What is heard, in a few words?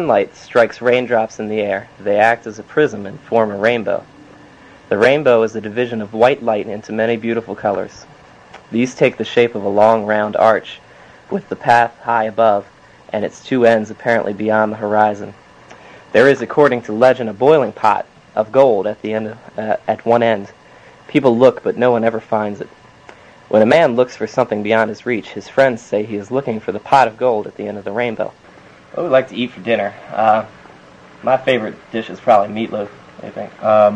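maryland, International Dialects of English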